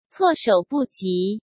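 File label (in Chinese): "措手不及", Mandarin Chinese; chinese-flashcards; hsk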